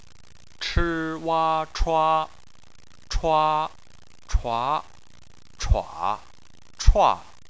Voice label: "chua", Chinese

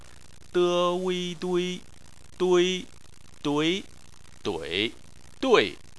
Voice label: "dui", chinese

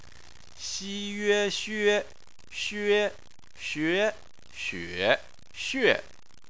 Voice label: "xue", chinese

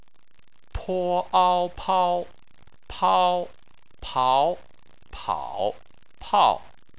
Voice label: "pao", chinese

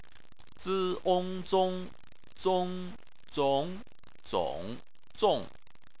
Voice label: "zong", Chinese

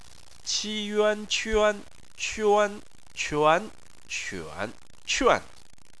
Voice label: "quan", chinese

